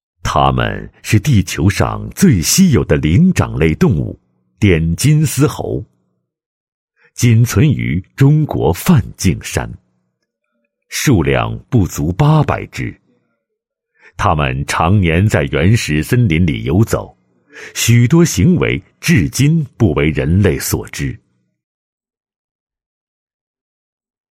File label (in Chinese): 男 解说 金丝猴片花解说; 黔金丝猴片花解说